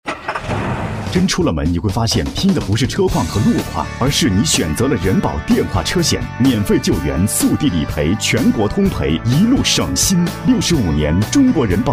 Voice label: 男 电台广告; 央广财经; 中国人保车险

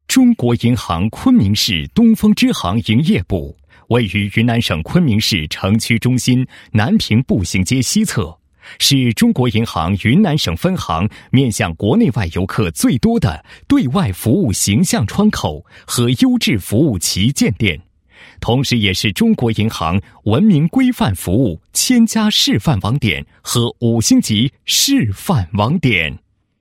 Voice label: 男 企业宣传 宣传简介; 中国银行

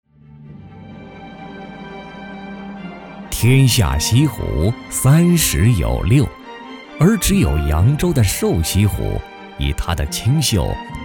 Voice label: 男100-风景区介绍瘦西湖（讲述 磁性）, 国语男声配音

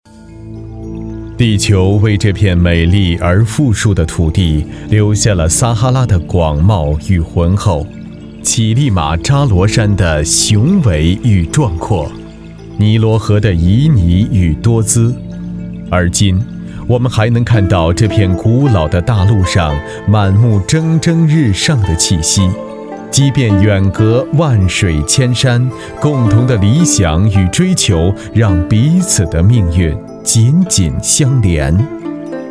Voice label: 专题 沉稳浑厚, 国语男声配音; 中非

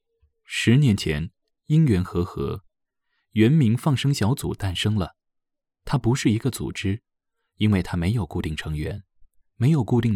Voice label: 性冷淡, 新派纪录片风格, 年轻解说; 放生人

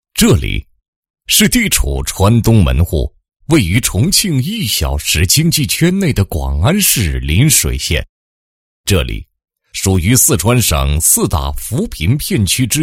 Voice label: 男 工作纪实; 邻水县扶贫开发工作纪实

